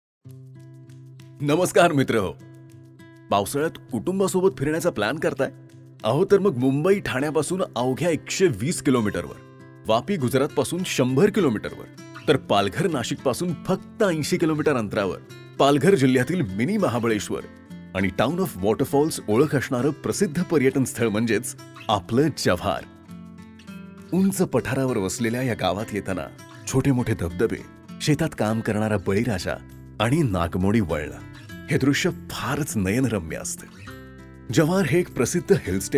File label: Narration Travel VLOG, Marathi